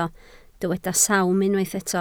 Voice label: "sawm", Welsh